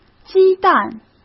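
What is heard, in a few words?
"鸡蛋", Mandarin Chinese; hsk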